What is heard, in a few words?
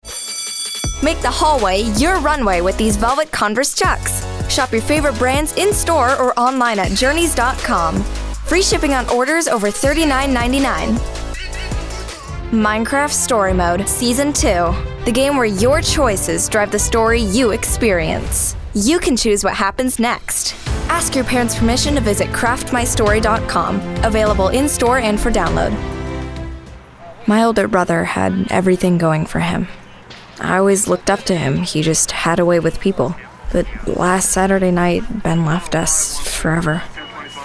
Commercial